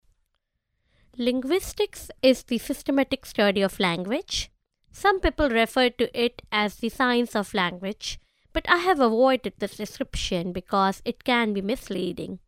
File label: female hindi voice over english